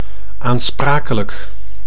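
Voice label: "aanspakelijk", Dutch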